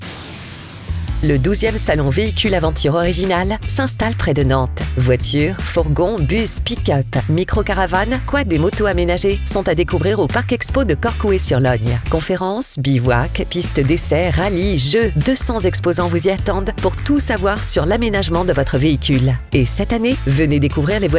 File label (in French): spot; salon vehicule aventure